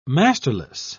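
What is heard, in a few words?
"masterless", British English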